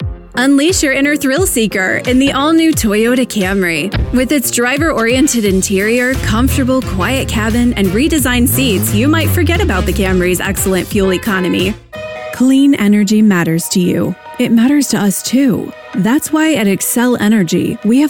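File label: American English, Commercial; voice demos; GoLocalise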